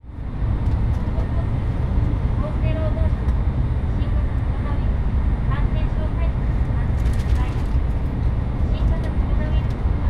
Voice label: announcement, jr shinkansen